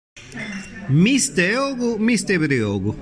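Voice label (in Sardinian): Mistu Ogu Priogu, Sardinian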